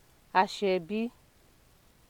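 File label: "asoebi", Nigerian Pidgin, female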